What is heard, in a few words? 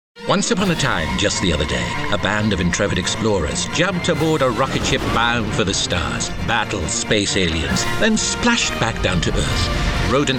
Commercial